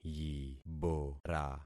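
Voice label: "yibora", concatenated syllables; Affixing experiment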